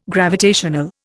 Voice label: "gravitational", Indian

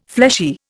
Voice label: "fleshy", Indian

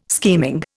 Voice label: "scheming", Indian

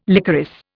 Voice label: "licorice", Indian

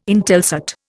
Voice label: "Intelsat", Indian

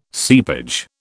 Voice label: "seepage", British

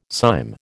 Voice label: "cyme", American

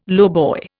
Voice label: "lowboy", Indian

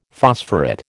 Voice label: "phosphorate", American

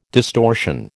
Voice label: "distortion", American

